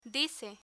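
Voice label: "dice", Spanish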